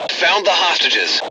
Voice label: detect host, Voice English

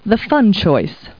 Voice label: people, kid

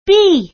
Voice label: "b", letter; star catcher

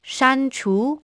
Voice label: delete, commands, chinese

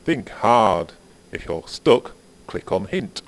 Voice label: chat

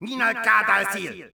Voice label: men

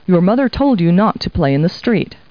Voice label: people, kid

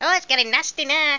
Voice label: threat; worms dc; tykes

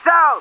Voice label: cops, English; Driver

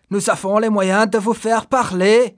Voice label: make talk, phrases, french; Aristosoft, Inc.

